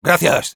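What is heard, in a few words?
Sniper thanks, Spanish; "¡Gracias!"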